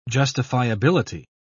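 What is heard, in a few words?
"justifiability", British English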